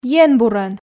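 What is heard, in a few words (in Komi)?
"ен бурӧн", Komi, female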